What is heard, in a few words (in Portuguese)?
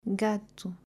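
"Gato", Portuguese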